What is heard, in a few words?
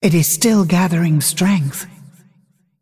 Female Dragon ERROR SUMMON SICKNESS